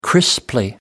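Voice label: "crisply", British English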